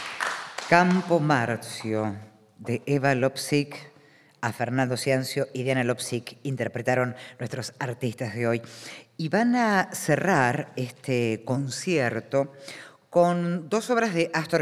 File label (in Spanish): locutora